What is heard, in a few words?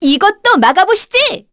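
Vox Skill, Korean; Naila; kingsraid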